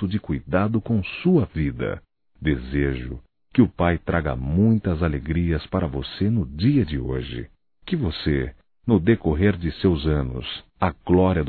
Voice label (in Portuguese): Aniversário Irmão Masculino; Aniversarios; Telemensagens 2017